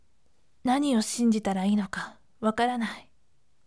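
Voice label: voice, firm; naniwoshinjitaraiinoka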